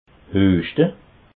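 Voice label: "hueschte", Alsatian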